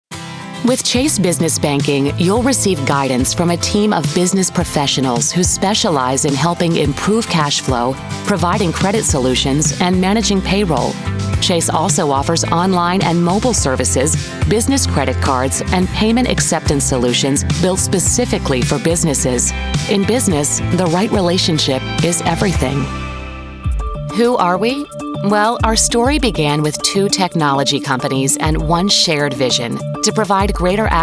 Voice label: Corporate Narration